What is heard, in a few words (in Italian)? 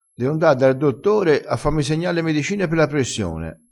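"segna", dialettale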